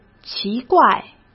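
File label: "奇怪", Mandarin Chinese; chinese flashcards; hsk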